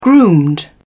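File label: "groomed", British English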